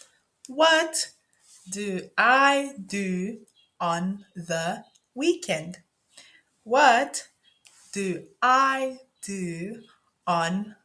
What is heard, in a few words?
conversation with accent from South Africa; “What do you do on the weekend?”; Verb to Be, Am, Is